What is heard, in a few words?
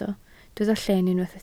"lleen", Welsh